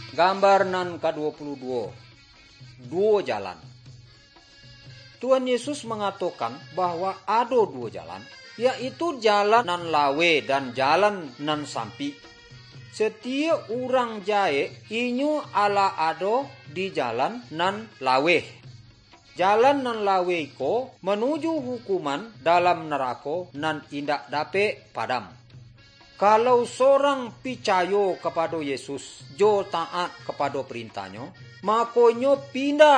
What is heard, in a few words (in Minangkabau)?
good news minangkabau